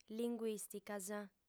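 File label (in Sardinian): "linguìsticas", Sardinian, female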